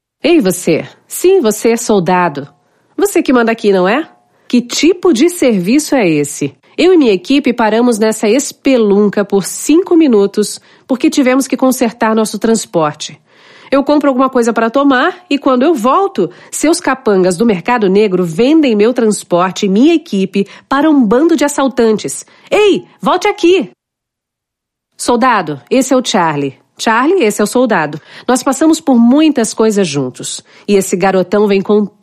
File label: portuguese brazilian animation